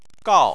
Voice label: "gao4", Chinese

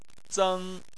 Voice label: "zeng1", Chinese; Chinese Surnames

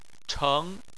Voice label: "cheng2", chinese; Chinese Surnames

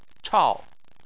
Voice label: "chao4", chinese

